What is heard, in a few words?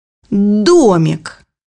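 "little house", Russian; bukvar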